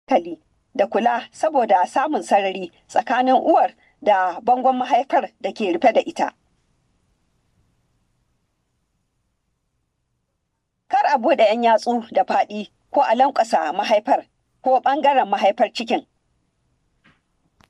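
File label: Hausa General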